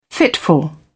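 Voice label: "fitful", British English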